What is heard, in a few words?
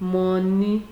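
"money", Nigerian Pidgin, female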